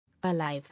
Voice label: "Alive", English